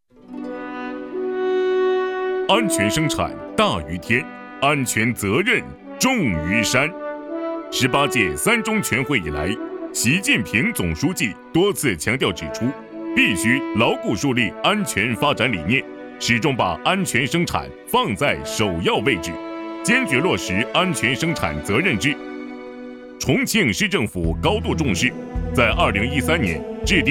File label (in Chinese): 男, 城市宣传片